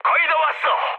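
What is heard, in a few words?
Korean near waypoint mood high, speech; War Thunder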